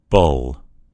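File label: "bull", American English; gcompris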